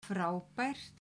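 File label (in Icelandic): "frábært", Icelandic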